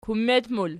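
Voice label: "kummet", plural, Archi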